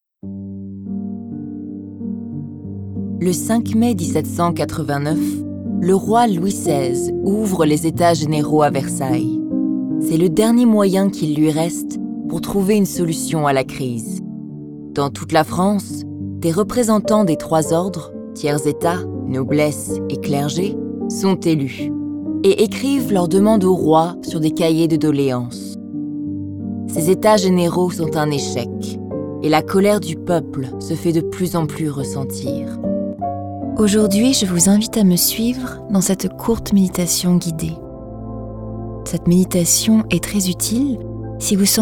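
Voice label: French Narrative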